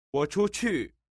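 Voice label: "wochuqu", Mandarin Chinese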